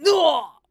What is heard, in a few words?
Male